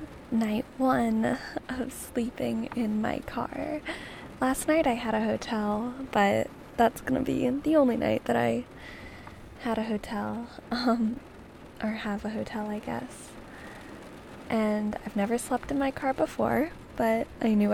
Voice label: [F4A] Road trip Rambles [rain][asmr][soft spoken and whispered][ear to ear]